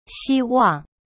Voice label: "希望" xi wang, chinese; cyberchinese

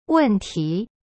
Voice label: "问题", Chinese; wen ti

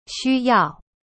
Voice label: "需要", Chinese; xu yao; cyberchinese

